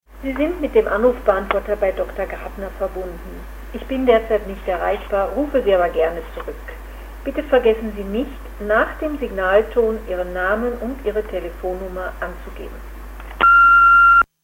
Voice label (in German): Anrufbeantworter